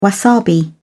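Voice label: "wasabi", British English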